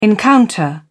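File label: "encounter", British English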